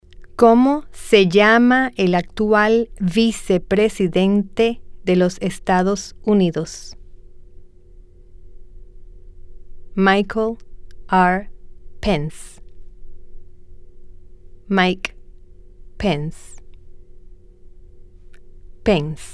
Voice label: Question, Spanish